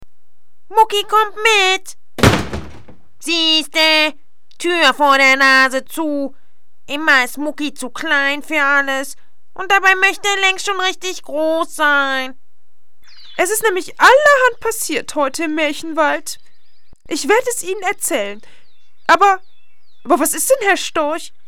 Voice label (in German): trickstimmen